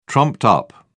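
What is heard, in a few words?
"trumped-up", British English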